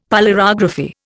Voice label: "polarography", Indian; Dictionary